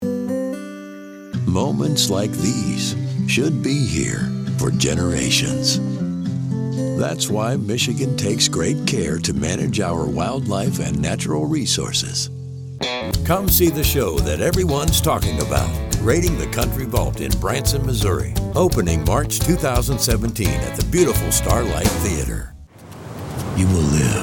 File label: Commercial